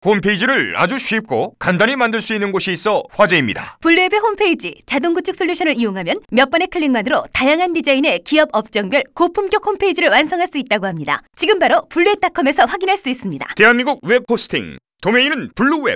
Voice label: radio ad